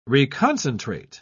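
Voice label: "reconcentrate", British English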